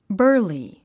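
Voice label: "burly", English word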